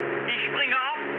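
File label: German bailout mood high, speech; War Thunder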